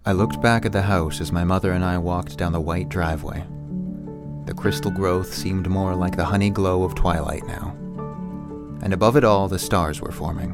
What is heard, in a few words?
Narration VO